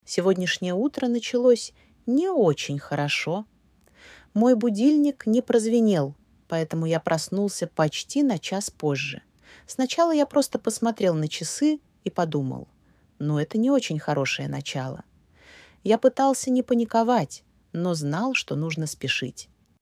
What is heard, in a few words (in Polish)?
rosyjski